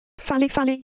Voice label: Ewe, ideophones; Shape